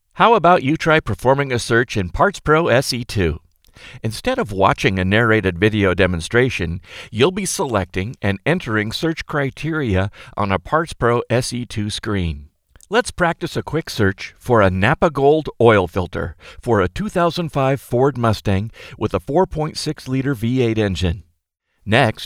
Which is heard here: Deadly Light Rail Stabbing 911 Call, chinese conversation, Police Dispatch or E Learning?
E Learning